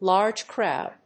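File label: "large crowd", English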